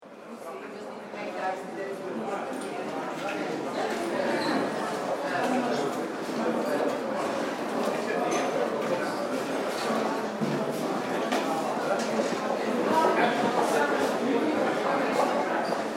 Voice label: Hotel Reception Greeting Guests With Dining Area Background Ambience